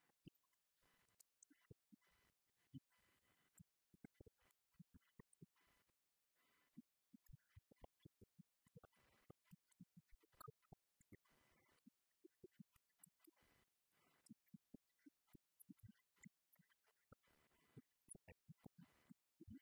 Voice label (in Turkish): Cince Erkek Mandarin Cin Simplified